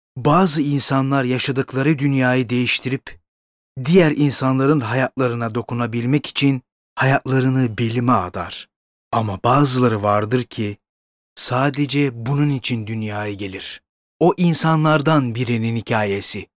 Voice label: Dokumentar

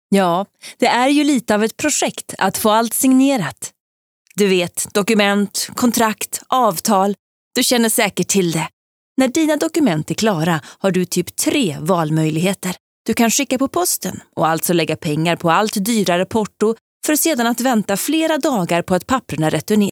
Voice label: Reklam

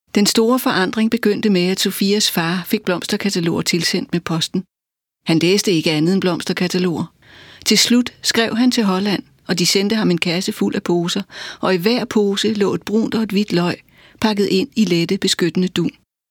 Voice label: Lydbog

